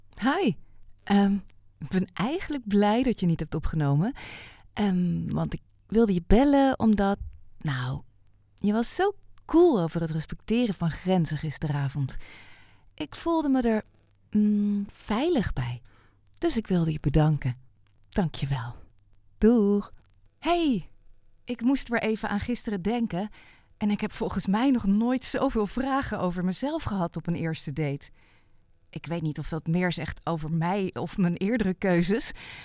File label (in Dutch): Jongevrouw voicemail relaxed romantisch verzorgend